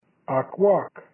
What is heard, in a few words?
"Aakwayk", Kumeyaay; Back